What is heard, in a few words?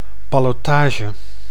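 "ballotage", Dutch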